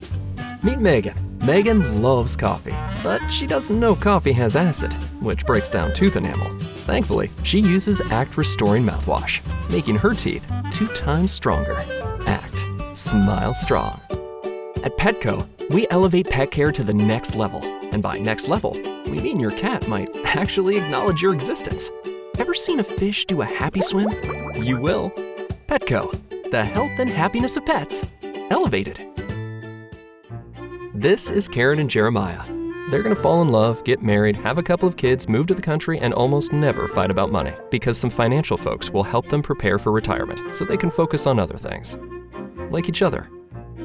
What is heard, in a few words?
Full Commercial